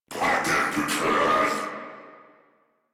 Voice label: Attack, en; Warframe; Corpus Amalgam Pilot